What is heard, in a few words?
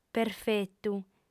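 "perfetu", female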